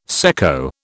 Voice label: "secco", British